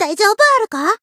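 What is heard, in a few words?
heal, Japanese; lingli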